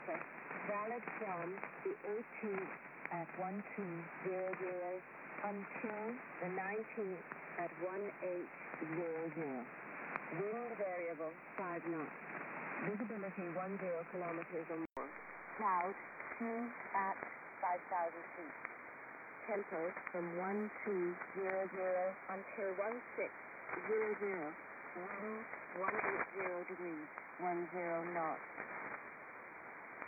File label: USB Shannon Volmet; 5505khz; Malahit DSP; Afedri